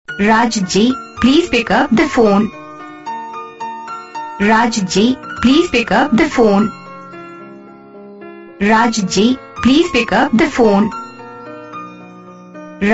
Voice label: call my name ringtone raja ji please pickup the phone